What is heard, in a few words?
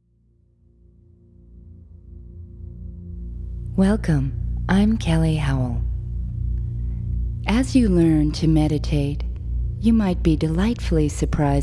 Guided Meditation; Theta Brain